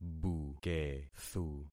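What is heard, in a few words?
"bukethu", concatenated syllables; Affixing experiment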